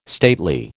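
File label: "stately", American English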